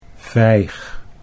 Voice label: "vijg", Dutch